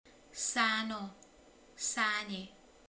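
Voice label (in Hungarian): "vékony", Hungarian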